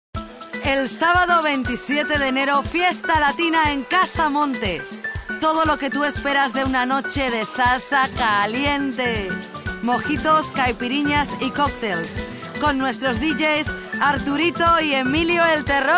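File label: Spanish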